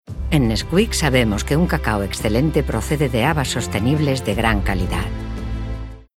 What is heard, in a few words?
female spanish comercial; ideasonora